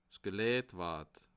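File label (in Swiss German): "geleitwort", Bas Rhin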